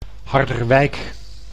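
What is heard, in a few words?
"Harderwijk", Dutch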